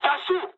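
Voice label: bailout mood high, speech japanese; War Thunder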